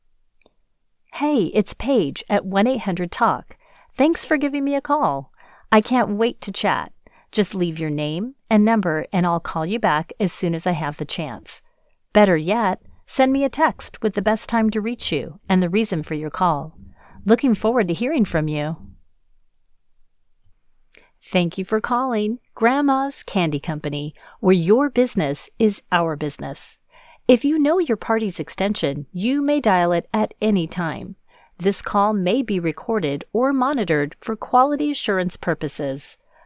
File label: IVR